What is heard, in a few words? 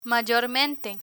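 "mayormente", Spanish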